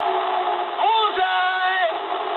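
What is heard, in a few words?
English bomb success mood low; War Thunder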